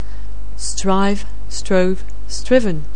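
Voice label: "strive", English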